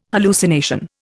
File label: "hallucination", Indian